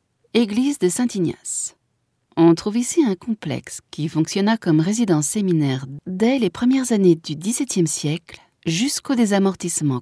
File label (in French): audioguia, frances; audioguia baeza